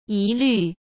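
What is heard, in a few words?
"疑虑", Mandarin Chinese; chinese flashcards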